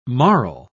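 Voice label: "marl", British English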